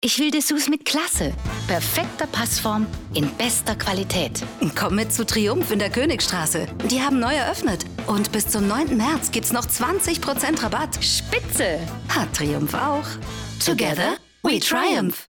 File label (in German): Spot; Triumph